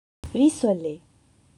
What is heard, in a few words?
"rissolee", French